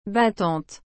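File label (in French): "battante", French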